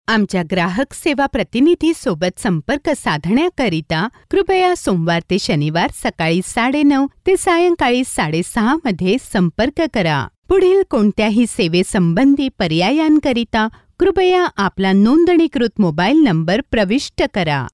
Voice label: female marathi voice over narrative

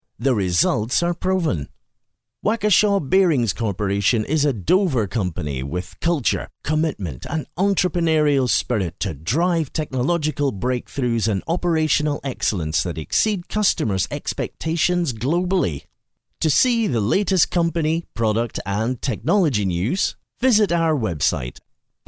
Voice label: male scottish voice over talent upbeat

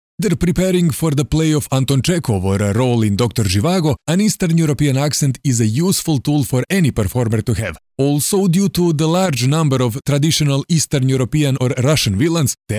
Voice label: male croatian voice over talent english

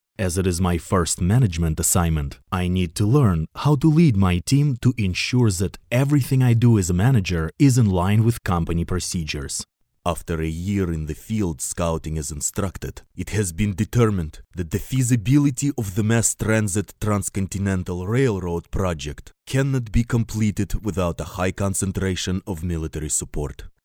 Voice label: male russian voice over talent english

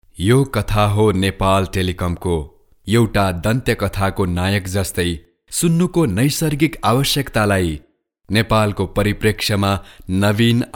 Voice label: male nepali voice over talent narrative

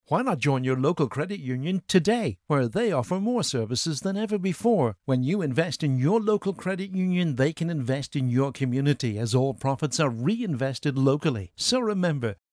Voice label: male irish voice over sales

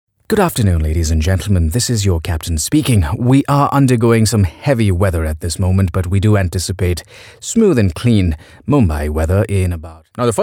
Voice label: male indian voice over talent character

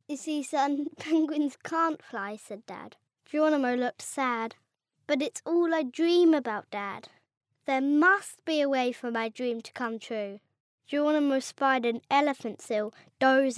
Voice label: boy british voice over upbeat; english british

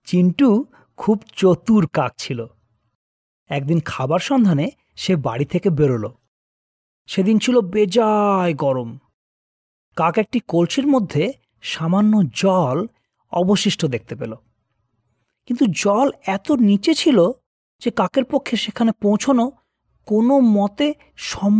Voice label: male bengali voice over character; bangla

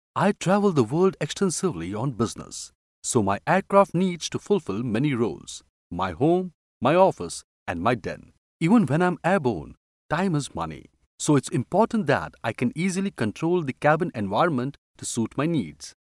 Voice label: male indian voice over talent character

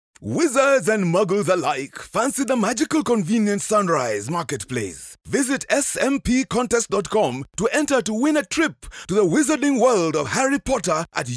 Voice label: male african voice over sales, african english

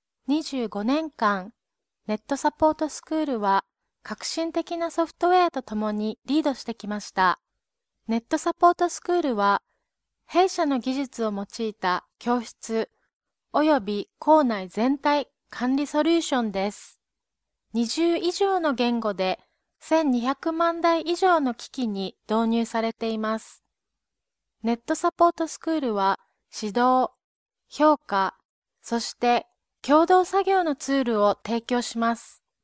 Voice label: female japanese voice over narrative

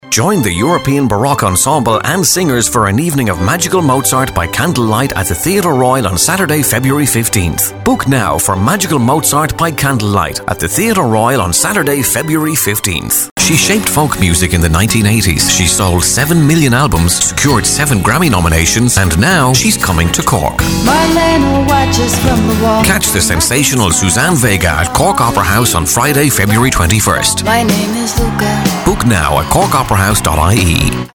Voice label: male irish voice over sales

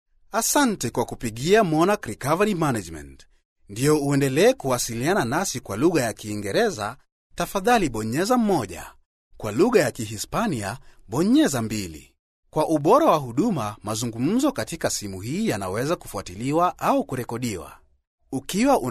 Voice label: male swahili voice over talent upbeat

